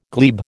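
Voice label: "glebe", American English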